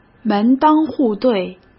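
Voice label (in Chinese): "门当户对", Mandarin Chinese; chinese flashcards; hsk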